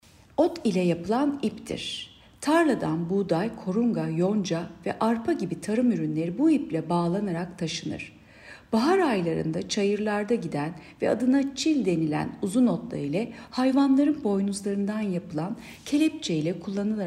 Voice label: turkce